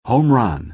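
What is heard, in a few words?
"home run", American English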